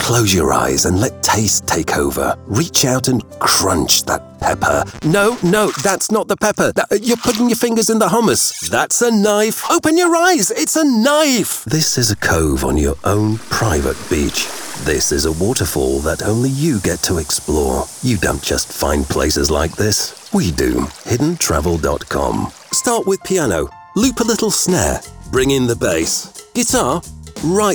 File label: Commercial